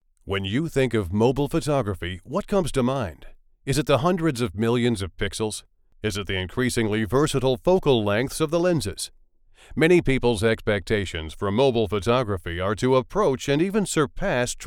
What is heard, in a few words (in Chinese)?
激情活力